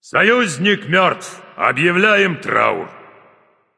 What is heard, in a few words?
slain ally, russian; caster